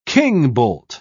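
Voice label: "kingbolt", British English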